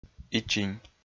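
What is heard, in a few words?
"ичинь", Komi, male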